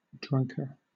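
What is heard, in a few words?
"drunker", English; pronunciation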